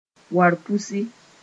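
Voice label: "warpusi", Mooré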